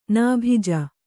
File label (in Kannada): "ನಾಭಿಜ", Kannada